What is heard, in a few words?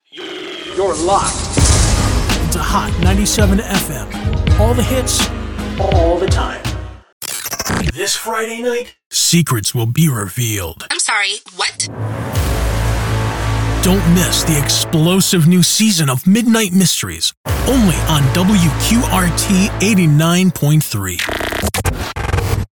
Radio Imaging Samples